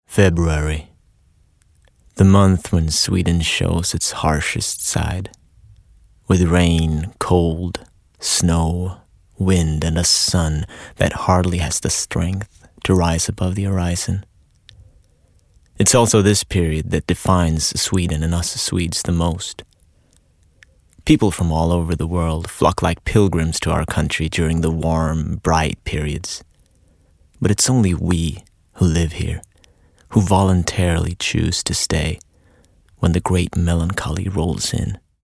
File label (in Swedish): se m engelska